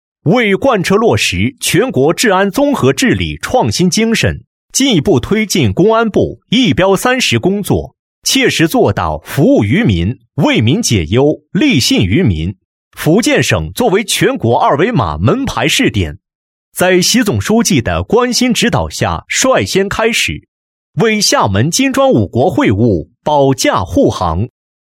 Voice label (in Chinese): 男, 工作汇报; 福建省智慧城市汇报